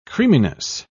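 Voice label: "creaminess", English, British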